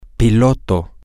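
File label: pilot, Spanish, male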